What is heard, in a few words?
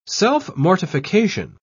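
"self-mortification", British English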